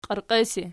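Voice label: "qierqesi" nominative, Archi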